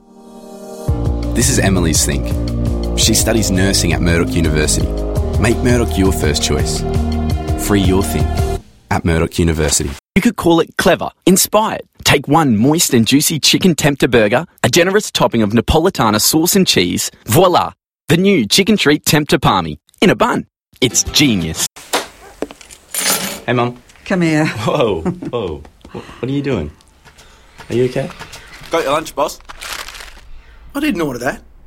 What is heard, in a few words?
English Australian commercial